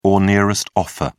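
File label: "ono", British English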